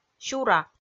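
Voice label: "сюра", Komi, female; kpv audio dict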